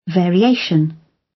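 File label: "variation", British English; The arts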